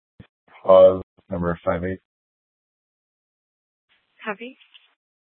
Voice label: Dispatch, Mesa PD

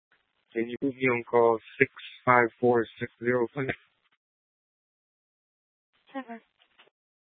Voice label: Tempe PD, scanner; Rgnl Wireless Co-op (RWC)

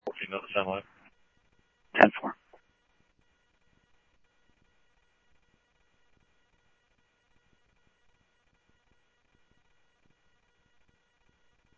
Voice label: Police, scanner audio

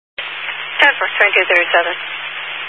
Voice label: Highway Patrol, Phoenix Metro West Area, scanner

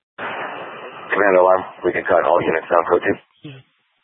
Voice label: Fire Dpts VHF 154.800 Patch, scanner; TOPAZ PD (TRWC); Thompson Peak

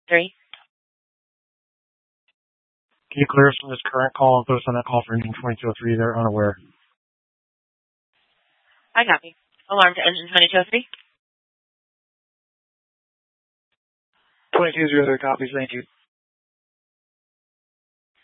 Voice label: Fire Departments Mesa Simulcast; TOPAZ

